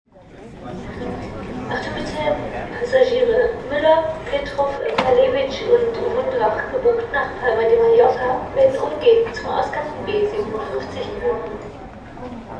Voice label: die Passagiere, Flughafen Ansagen

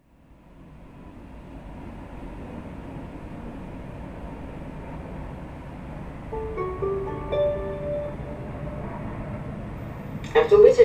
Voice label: gebucht nach Madrid, Flughafen Ansagen

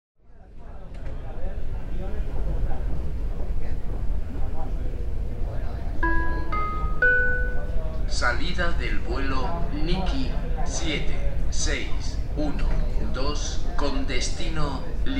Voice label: Ansage nach Lissabon

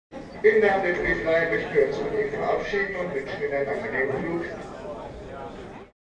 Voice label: Flughafen Ansagen, und wuenschen Ihnen einen guten Flug

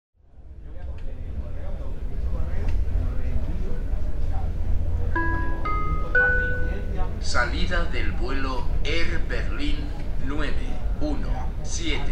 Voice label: Ansage Air Berlin nach Berlin Tegel

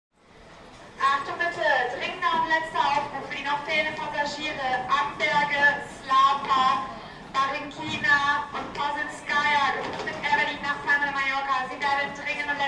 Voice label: Achtung bitte dringender Aufruf, Flughafen Ansagen

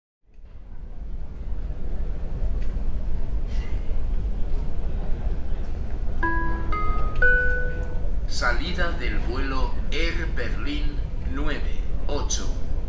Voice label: Ansage nach Muenchen; Air Berlin

